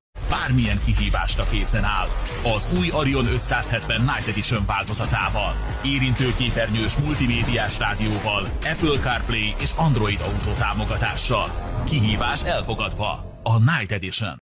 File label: hungarian automotive